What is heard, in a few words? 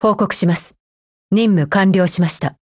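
OPERATION OVER Japanese, Voice; GF Alarm; AK15Mod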